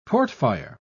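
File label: "portfire", British English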